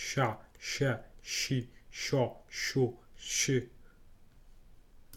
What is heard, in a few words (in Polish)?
"ś", fonetyka